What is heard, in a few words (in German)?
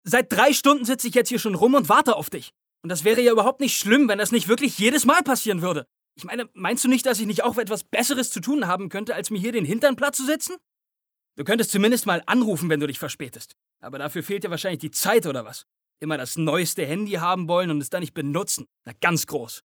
Wütend; LOFT VOICES